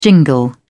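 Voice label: "jingle", British English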